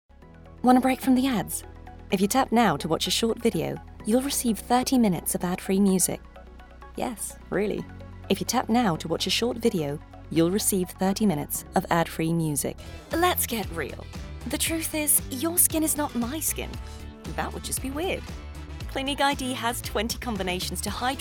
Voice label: English Commercial; VOICEFOX